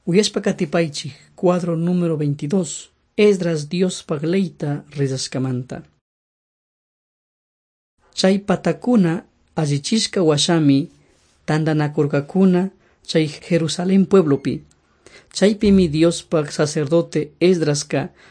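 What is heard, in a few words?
quichua chimborazo, drama; on trial for god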